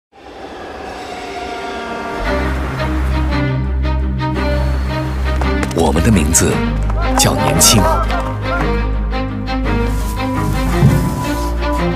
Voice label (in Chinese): 男; 小米-我们的时代